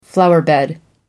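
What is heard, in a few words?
"flowerbed", British English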